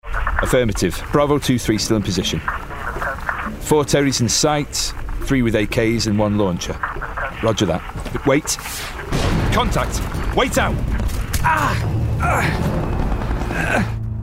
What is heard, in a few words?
Gaming Character Voicereel